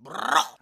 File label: brrrrrr male, Voice; ooh ahh